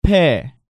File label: "Pae", Korean